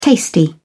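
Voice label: "tasty", British English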